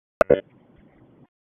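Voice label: Police Southwest District Dispatch, p25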